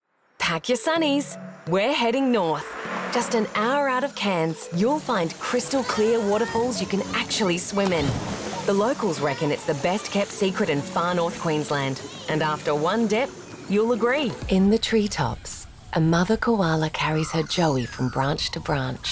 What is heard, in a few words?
Narration